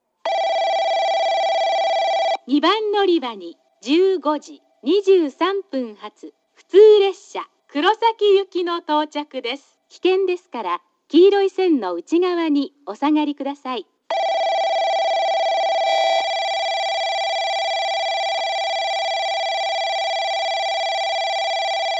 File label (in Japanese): 2番のりば接近放送（普通 黒崎行き）